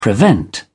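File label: "prevent", British English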